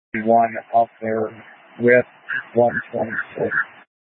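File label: Incident Tac 2, Scanner audio recording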